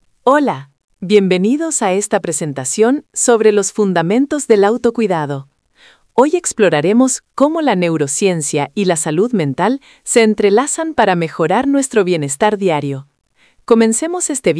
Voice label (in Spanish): locucion